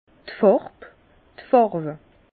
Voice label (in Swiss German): "farb", Bas Rhin; vin; couleurs